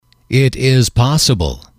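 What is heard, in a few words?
"it is possible", English, male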